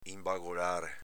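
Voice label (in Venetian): "inbagolare", Veneto dialect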